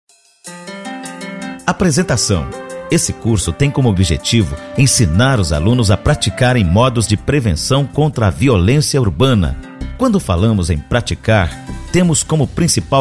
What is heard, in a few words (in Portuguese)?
Locutor spot